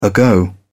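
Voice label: "ago", British English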